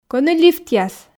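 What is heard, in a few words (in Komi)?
Komi, кӧні лифтъяс, female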